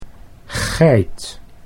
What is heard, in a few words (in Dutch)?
"geit", Dutch